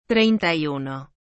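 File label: "treinta y uno", Spanish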